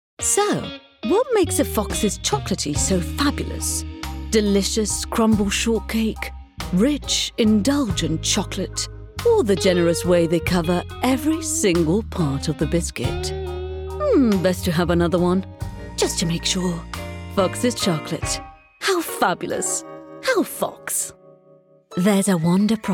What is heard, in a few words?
female italian english with undetectable accent commercial